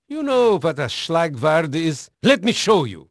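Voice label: Dutch male, voice; Pirates Of The Caribbean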